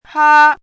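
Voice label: "hā", Chinese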